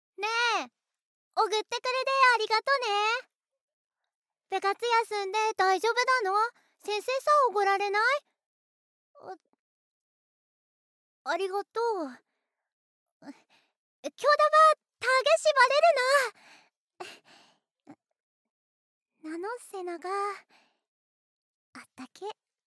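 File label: voice sample, dialect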